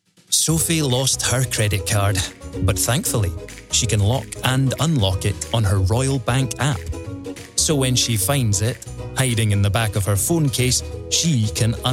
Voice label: Commercial; RBS